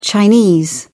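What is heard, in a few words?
"chinese", English, British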